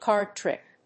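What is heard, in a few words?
"Card trick", English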